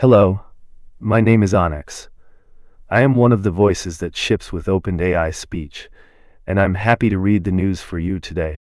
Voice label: news, speech; dtp-newsroom; onyx